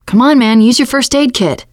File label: suggest health, teengirl, voice; player; survivor